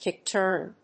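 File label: "kick turn", English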